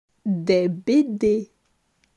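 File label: "Des bd", French; vocabulaire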